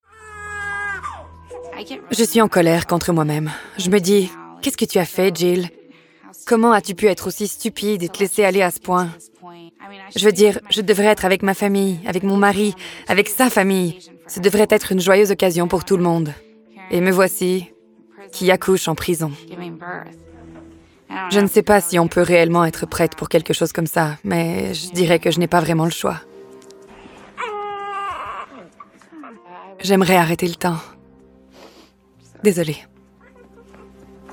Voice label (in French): Surimpression vocale